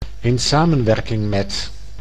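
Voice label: "in samenwerking met", Dutch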